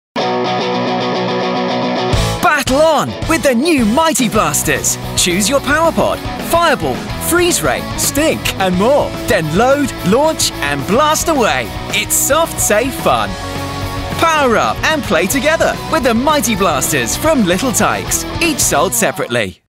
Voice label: young UK male voice over; Little Tikes; My First Mighty Blasters